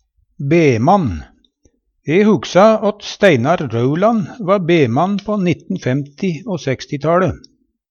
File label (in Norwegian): "bemann", Numedalsmål; Substantiv hankjønn